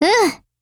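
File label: AGREE, Japanese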